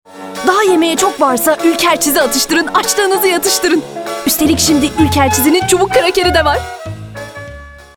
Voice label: neseli esprili; seslendirme

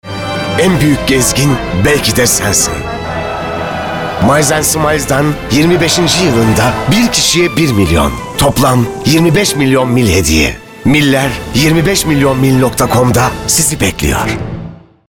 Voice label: enerjik tempolu

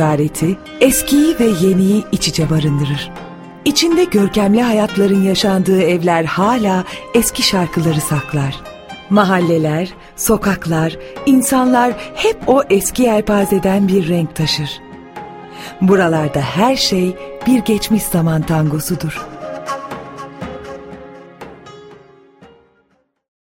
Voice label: belgesel tarihi; enerjik tempolu

